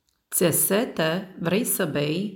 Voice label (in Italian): Rumeno